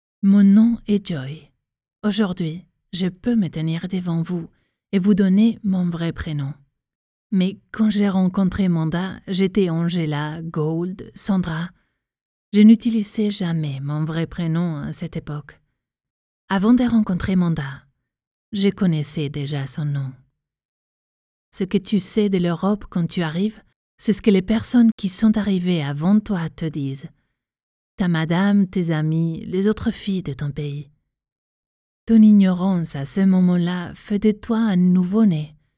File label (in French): NARRA IMMIGRANTE AFRICAINE